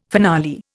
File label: "finale", Indian